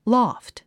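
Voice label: "loft", English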